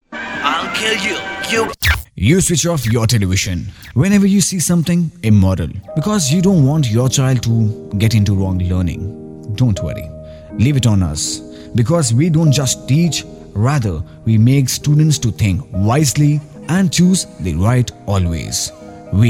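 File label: School Promo Learning English, male voice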